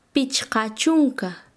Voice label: Chanka, quechua; cincuenta